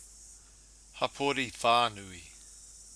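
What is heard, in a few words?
"hapori whanui", Maori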